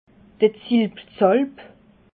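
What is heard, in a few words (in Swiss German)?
"zilpzalp", Alsatian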